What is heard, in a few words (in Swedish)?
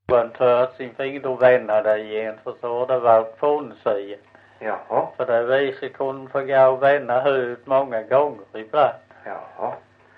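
Visseltofta Skåne, svenska sagor dialekter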